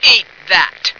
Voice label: eat that, female